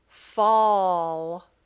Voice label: "fall", English

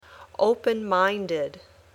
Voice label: "open minded", English

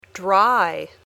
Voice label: "dry", English

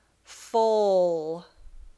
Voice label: "foal", English

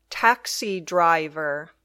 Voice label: "taxi driver", English

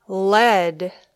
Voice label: "led", English

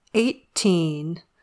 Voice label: "eighteen", English

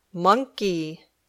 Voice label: "monkey", English

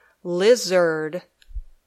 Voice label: "lizard", English

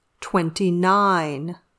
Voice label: "twenty-nine", English

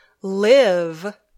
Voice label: "live", English

